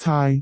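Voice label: "caai6", Cantonese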